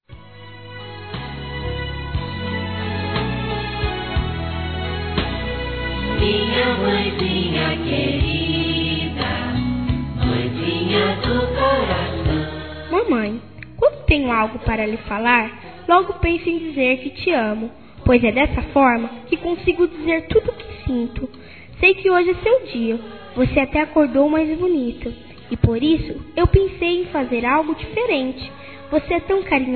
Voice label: MÃE (VOZ INFANTIL) F, Telemensagens; Telemensagens 2017

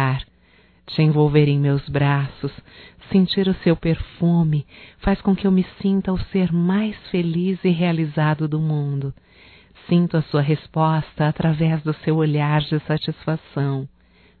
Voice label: UMA NOITE ESPECIAL, fem, Telemensagens; Bom dia, tarde e noite; Telemensagens 2017

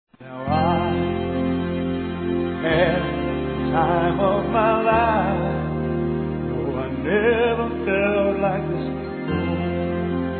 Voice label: agradecimento m; Telemensagens; Dia Dos namorados; masc; Telemensagens 2017

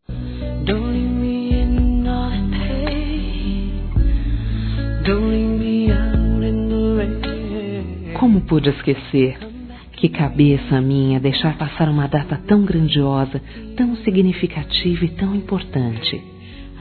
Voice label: Desculpas Aniversário Femin, Telemensagens; Telemensagens 2017

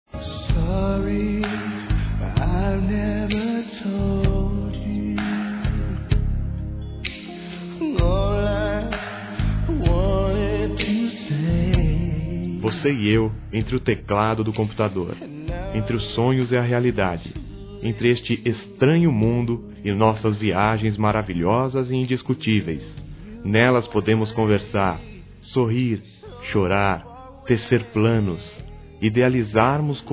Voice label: AMIZADE VIRTUAL, Telemensagens, Masc

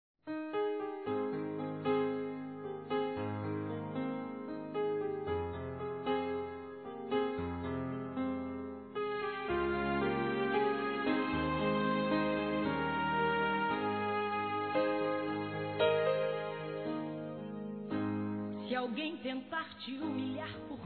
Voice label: Mensagem Romântica Feminino, Gospel; Telemensagens; Telemensagens 2017

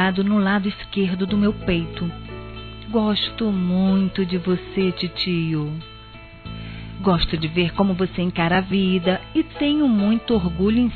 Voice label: Aniversário Tio Feminino; Aniversários; Aniv Familiar

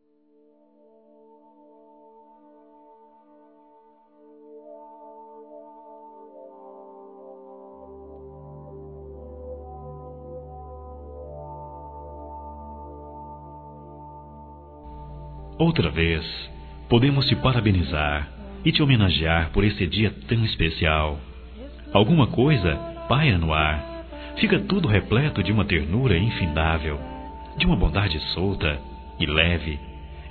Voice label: SOGRA M; Dia das Mães; Masc; Telemensagens 2017

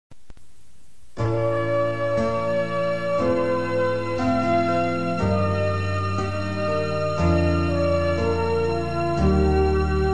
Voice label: MENSAGEM PARA IRMA MASC; Telemensagens; Telemensagens 2017

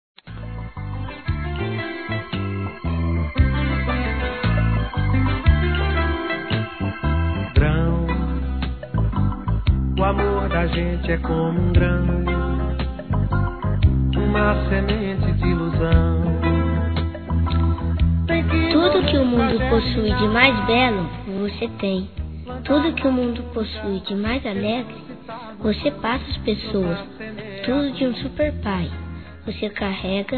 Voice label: Aniversário Pai Infantil Masculino; Telemensagens 2017; Aniversários

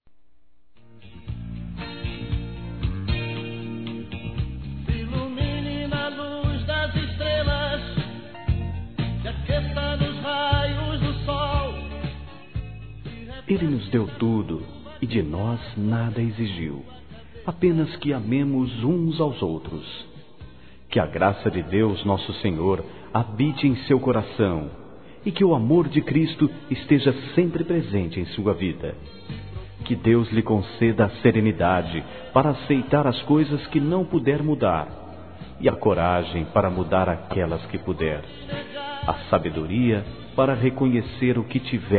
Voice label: EVANGELICA M; Telemensagens; Natal e Ano novo

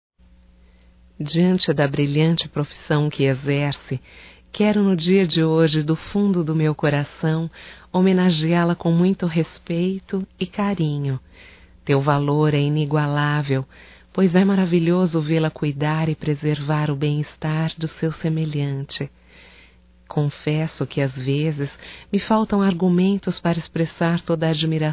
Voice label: Feminino; Dia Médica Feminino; Datas Mistas

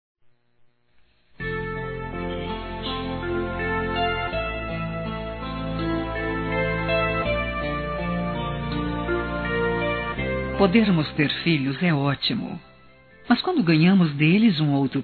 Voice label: Aniversário Neta Feminino, Telemensagens; Aniversários; Aniv Familiar

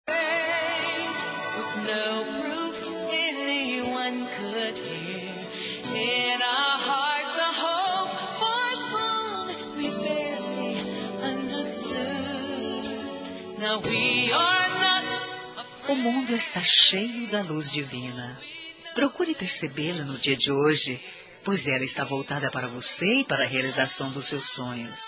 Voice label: ANIV. NEUTRO F

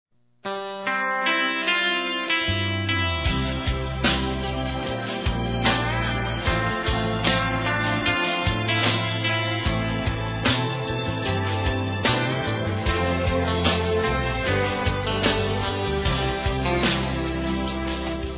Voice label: Aniversário Sertanejo Romantico Suave Masculino

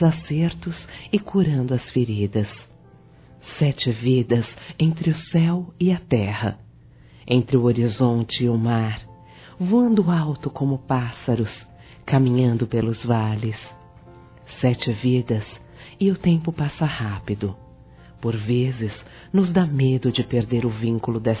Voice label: AMIGOS PARA SEMPRE, Fem; Amizade

